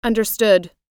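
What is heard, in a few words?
"understood", American English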